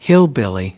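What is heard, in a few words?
"hillbilly", American English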